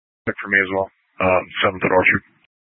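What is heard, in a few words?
Incident Tactical 1, Scanner audio recording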